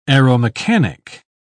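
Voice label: "aeromechanic", English, uk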